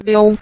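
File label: "liu", Mandarin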